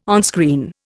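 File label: "onscreen", Indian English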